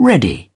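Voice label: "ready", British English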